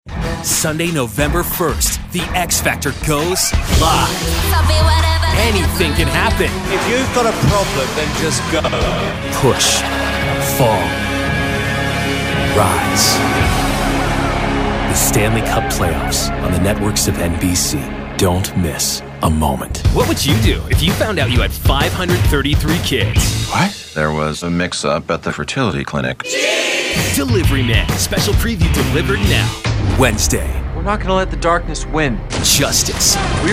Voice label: Promo